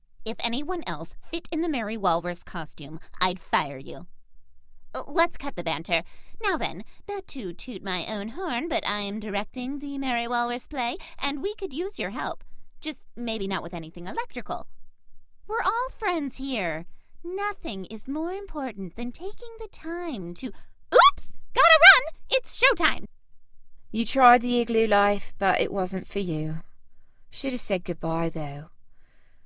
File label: Animation, voice over